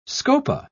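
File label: "scopa", British English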